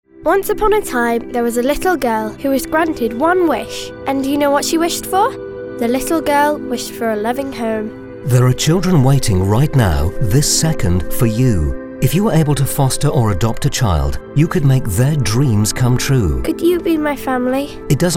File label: radio advert; Smile Adoption and Fostering